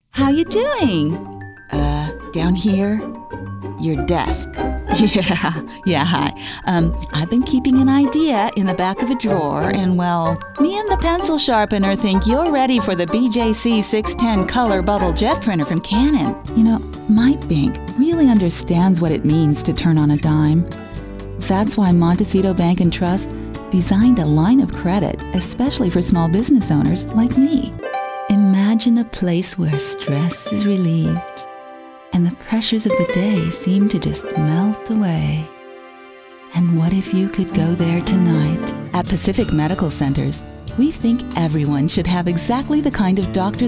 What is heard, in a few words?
Commercial